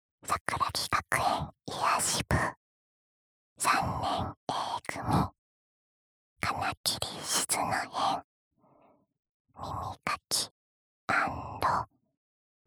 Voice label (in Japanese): タイトルコール